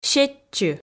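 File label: "сетчы" Komi, female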